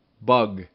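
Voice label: "bug", English; RhymeTest; jug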